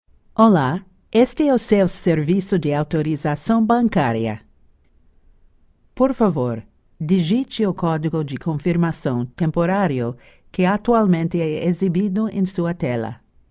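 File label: Portuguese Brazil, female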